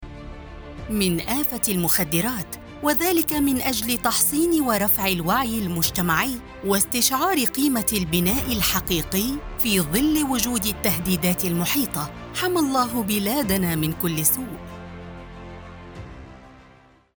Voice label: arabic political ads, arabic voice actors